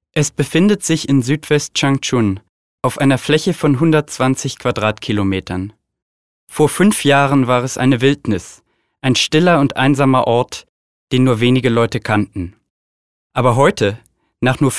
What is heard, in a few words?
german boy